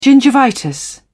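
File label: "gingivitis", English, British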